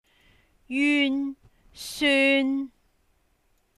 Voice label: yun syun1, cantonese